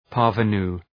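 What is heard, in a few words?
"parvenu", English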